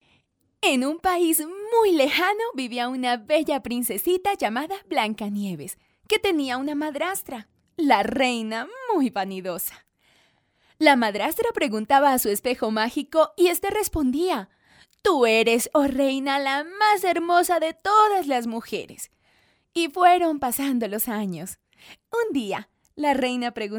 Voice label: locutora colombiana infantil colombian girl voiceover; Registro infantil